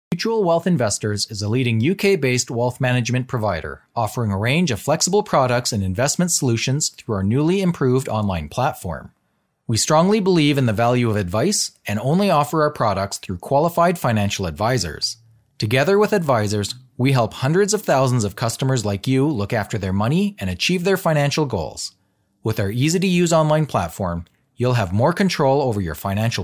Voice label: 美式英语 旁白类, 外籍男英; 外语

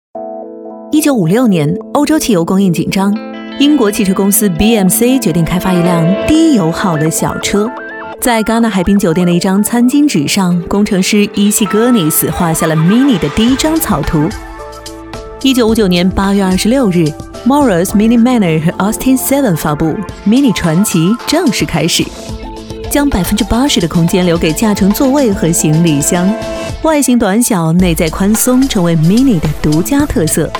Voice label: 宣传片 飞碟调侃; 病毒; 个性mini宝马

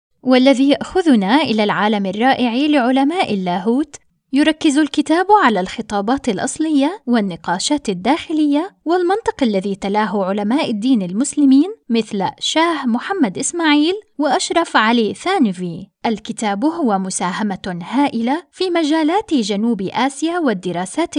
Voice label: 阿拉伯语女 外语 小语种

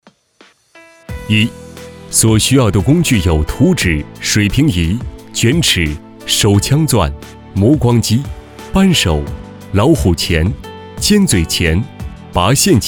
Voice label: 多媒体 培训课件, 男; 视频台词

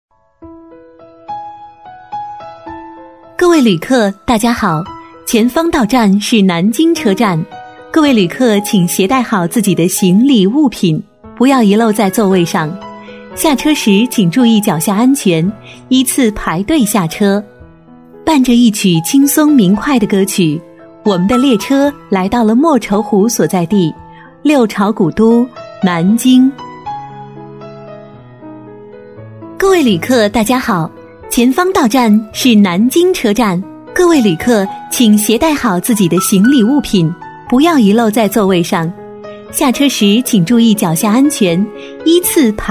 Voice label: 多媒体 广播提示 报站 动车 讲解, 女国; 南京

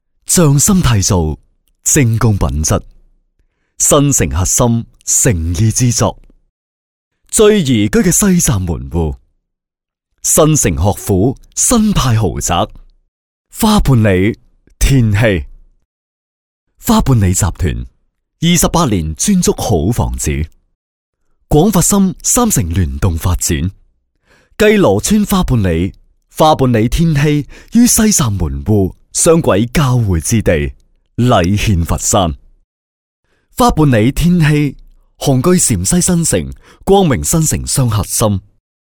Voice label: 男粤 广告 地产; 粤语; 花半里

